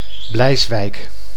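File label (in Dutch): "Bleiswijk", Dutch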